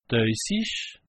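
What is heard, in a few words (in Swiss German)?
"doisig", Alsatian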